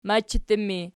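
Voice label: "machattu", ergative, Archi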